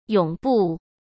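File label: "永不" Mandarin Chinese; chinese flashcards; hsk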